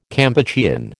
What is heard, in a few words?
"Kampuchean", American